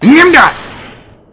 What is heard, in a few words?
"take that", German; codeweaver